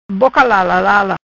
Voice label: "Boca Lalalala", Macanese; chatterbox

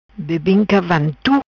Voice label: "Bebinca Vantu", Macanese

